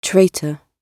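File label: "traitor", British English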